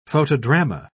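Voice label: "photodrama", British English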